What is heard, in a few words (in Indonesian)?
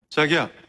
nada dering korea "chagiya" suara cowok